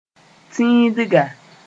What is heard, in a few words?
"tĩidga", Moore; vertical